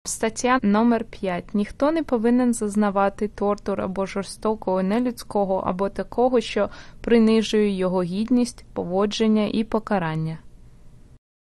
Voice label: Ukranian

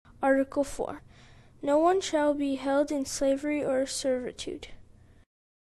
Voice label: English

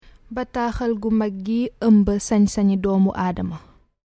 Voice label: Wolof

